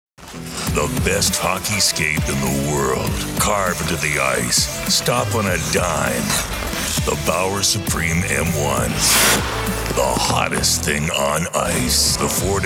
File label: Commercial